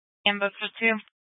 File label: Fire Departments Mesa Simulcast; TOPAZ